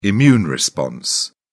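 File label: "immune response", British English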